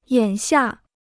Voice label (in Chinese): "眼下", Chinese